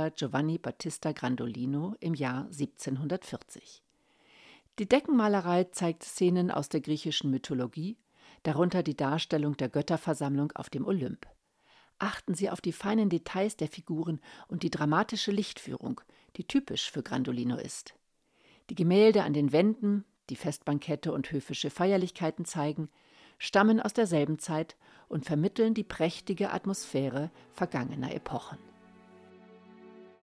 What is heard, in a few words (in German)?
Audioguide Schloss mit Barockmusik; hoerproben hoerbuchsprecherin